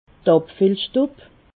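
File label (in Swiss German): "abfillstub", Haut Rhin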